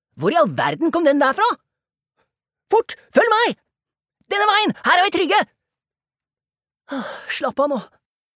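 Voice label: dub, Norwegian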